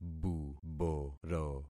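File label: "buboro", concatenated syllables; Affixing experiment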